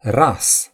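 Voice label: "shave", Romanian; gcompris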